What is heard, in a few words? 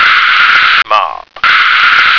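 "mop", American English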